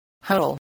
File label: "huddle", voice spelling